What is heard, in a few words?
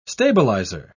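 "stabiliser", British English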